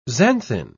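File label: "xanthine", English, British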